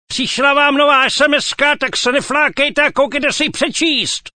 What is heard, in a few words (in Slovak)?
sms hlásky cz